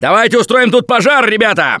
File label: incend ammo, russian, Survivor Voice; Gambler